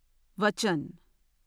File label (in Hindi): "वचन", Hindi; hindi script